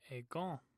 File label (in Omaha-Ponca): "egon", Omaha language